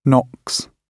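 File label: "knocks", British English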